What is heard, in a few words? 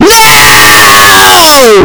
earraped noo